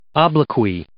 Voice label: "obloquy", English